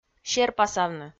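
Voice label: "серпасавны", Komi, female; kpv audio dict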